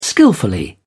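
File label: "skilfully", British English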